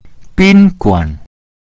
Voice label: "bin1 guan3", Mandarin; hotel